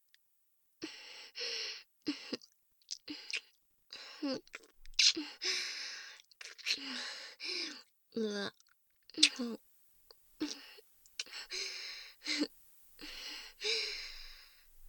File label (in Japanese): 夜中に従妹に襲われよう！（バイノーラルマイク収録 効果音あり大きめ）, ASMR; 効果音大差分